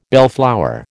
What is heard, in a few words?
"bellflower", American